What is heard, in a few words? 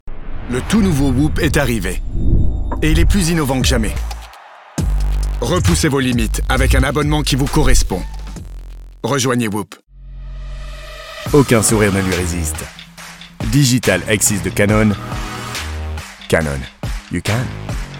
French